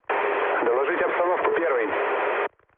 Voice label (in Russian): chat, speech ru; War Thunder